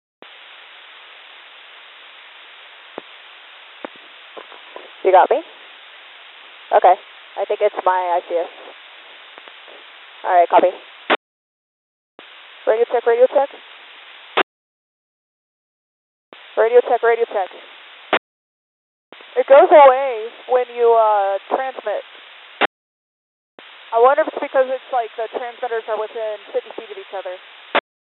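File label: Testing, Satcom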